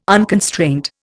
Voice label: "unconstraint", Indian

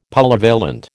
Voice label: "Polyvalent", American

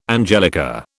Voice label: "angelica", British

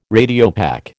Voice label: "Radiopaque", American

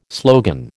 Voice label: "slogan", American

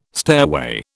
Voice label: "stairway", British

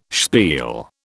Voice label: "spiel", British

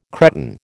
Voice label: "Cretan", American

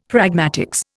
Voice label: "pragmatics", Indian; Dictionary

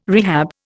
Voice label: "rehab", Indian

